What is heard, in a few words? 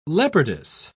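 "leopardess", British English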